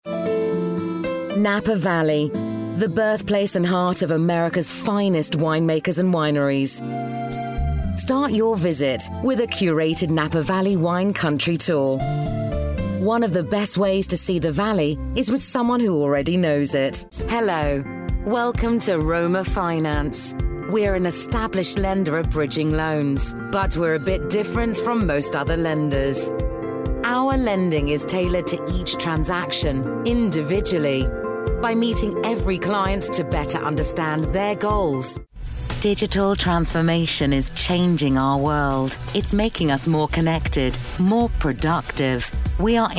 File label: Corporate Voice